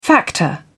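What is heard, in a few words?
"factor", British English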